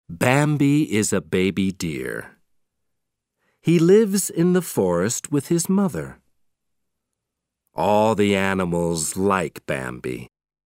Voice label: Audio British English